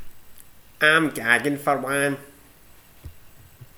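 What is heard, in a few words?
"gaggin", slang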